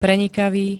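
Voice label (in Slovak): "prenikavý", Slovenské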